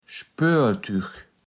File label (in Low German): "Spööltügg", platt